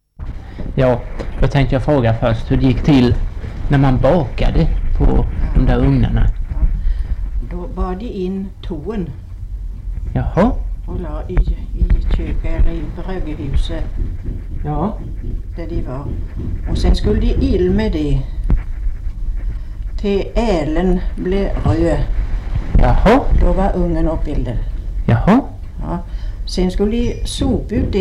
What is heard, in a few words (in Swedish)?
dialekter, svenska sagor; Folkminnen